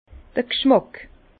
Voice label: "gschmack", Bas Rhin

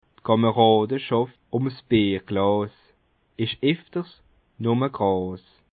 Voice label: "bierglass", Bas Rhin; L’amitié (liée) autour d’une chope de bière, N’est souvent que de l’herbe (de courte durée

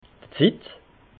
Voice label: "zit", alsace

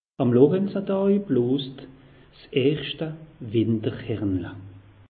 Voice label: "loranzedaj", alsace; meteo

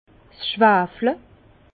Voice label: "s schwafle", Bas Rhin; vin; sulfitage

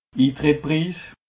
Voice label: "intrettspriss", alsace

